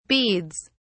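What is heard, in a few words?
"beads", English, British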